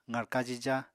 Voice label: "qarka jija", Apatani